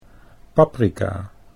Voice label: "paprika", Dutch

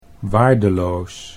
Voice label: "waardeloos", Dutch

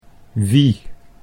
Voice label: "wie", Dutch

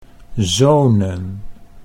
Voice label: "zonen", Dutch; ('sons')

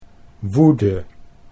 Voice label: "woede", Dutch

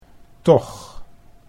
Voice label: "toch", Dutch

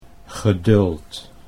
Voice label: "geduld", Dutch

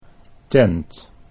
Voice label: "tent", Dutch

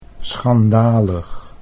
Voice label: "schandalig", Dutch; 'scandalous'

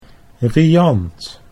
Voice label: "riant", Dutch

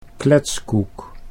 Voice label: "kletskoek", Dutch

